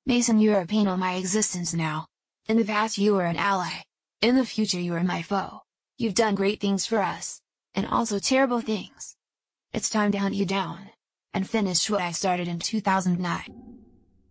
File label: Mumble